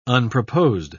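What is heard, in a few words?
"unproposed", British English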